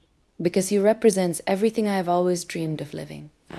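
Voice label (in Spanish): Voice Acting, Spanish, female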